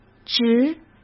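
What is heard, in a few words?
"直", Mandarin Chinese; chinese-flashcards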